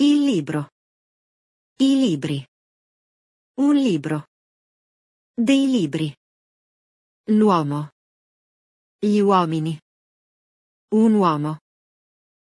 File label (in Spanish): GRAMATICA CLASE ITALIANO